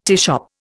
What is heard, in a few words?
"teashop", Indian